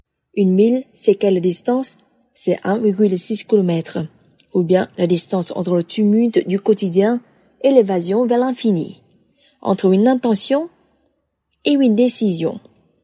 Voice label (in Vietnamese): tieng phap